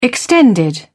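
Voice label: "extended", British English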